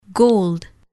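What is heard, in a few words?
"gold", English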